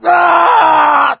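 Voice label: fall, voice, male; Left 4 Dead 2; coach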